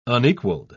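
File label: "unequaled", British English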